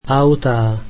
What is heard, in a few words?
slovak cars